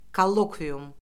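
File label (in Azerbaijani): "kollokvium", Azerbaijani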